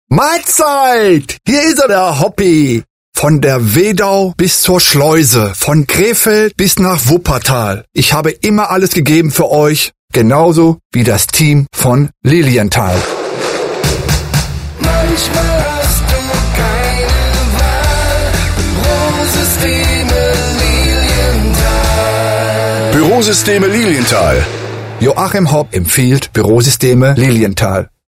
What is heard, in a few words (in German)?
Spot Vereine